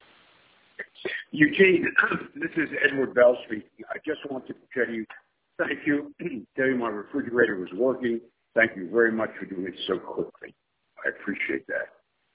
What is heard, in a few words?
voicemail